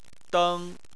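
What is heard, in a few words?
"deng" first tone, Chinese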